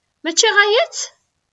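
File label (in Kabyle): Mačči ɣaya-tt?, Kabyle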